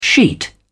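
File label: "sheet", British English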